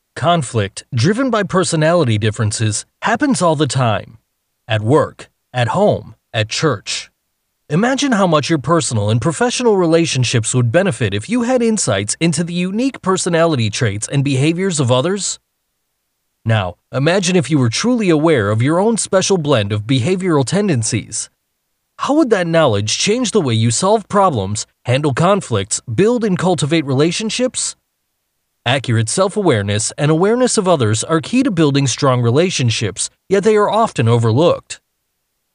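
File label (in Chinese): 外籍男英_外语_美式英语_宣传片类_沉稳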